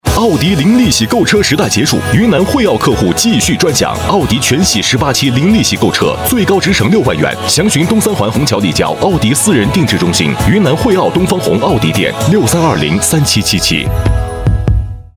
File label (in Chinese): 男 广告; 汇奥奥迪